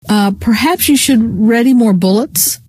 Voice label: reload, scientist female